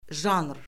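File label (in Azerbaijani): "janr", Azerbaijani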